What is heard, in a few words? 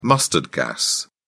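"mustard gas", British English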